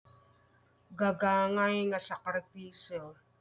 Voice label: Ilocano